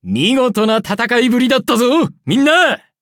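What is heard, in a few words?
victory, Japanese; Cookie Run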